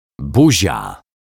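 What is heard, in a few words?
"buzia", Polish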